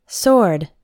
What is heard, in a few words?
"sword", English; hard words